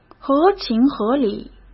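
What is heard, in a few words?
"合情合理", Mandarin Chinese; chinese flashcards; hsk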